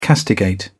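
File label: "castigate", British English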